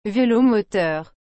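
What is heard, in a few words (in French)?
"velomoteur", French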